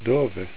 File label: "dove", Italian; italiano funzionale